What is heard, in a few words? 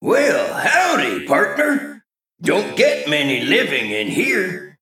Male Mech New Game